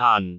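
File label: "aan2", Cantonese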